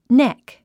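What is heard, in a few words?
"neck", English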